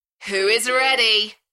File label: UK female, Who is ready; beanstalk audio